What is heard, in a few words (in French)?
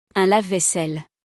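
"Un lave vaisselle", French; vocabulaire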